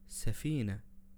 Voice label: ship, arabic words audio